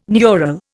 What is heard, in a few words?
"neural", Indian